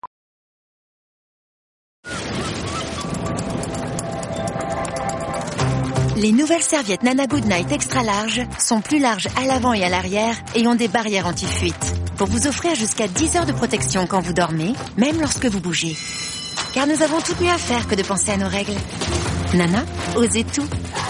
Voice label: Spot TV femme nature sport; Pub TV

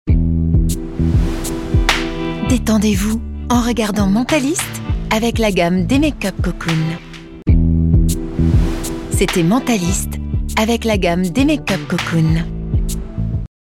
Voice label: Glamour chic; Demakup; Billboard

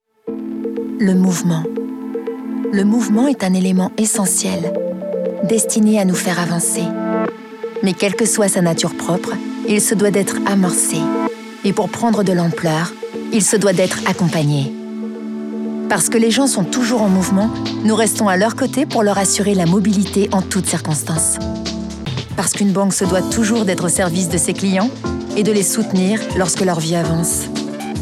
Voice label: convaincue concernee; Wolkswagen FS

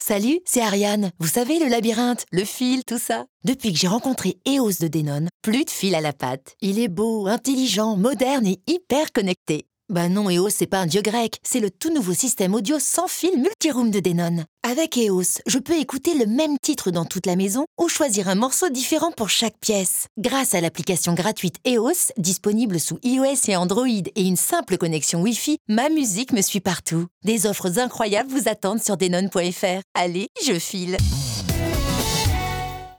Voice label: narration naturelle accapella, voix off; Denon Eos

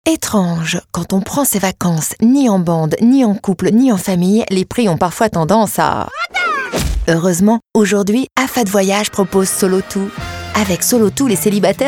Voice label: complice drole; Afat Voyages

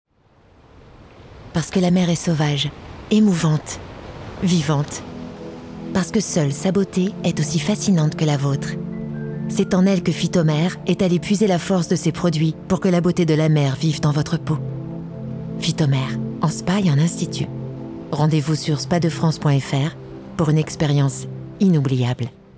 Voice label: Spot TV simple; Phytomer

